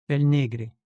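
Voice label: "pel negre", Occitan Languedocien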